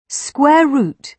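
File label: "square root", British English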